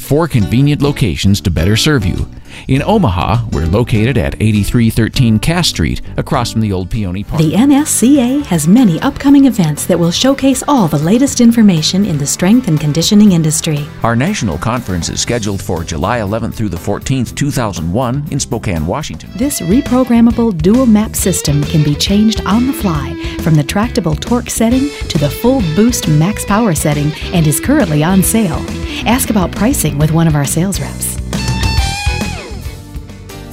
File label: PHONE HOLD